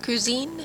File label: cousin female une cousine; french; Family